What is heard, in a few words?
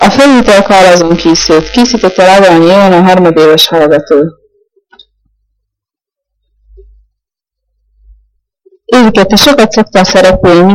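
dialect collection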